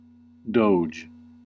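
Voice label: "doge", US English; pronunciations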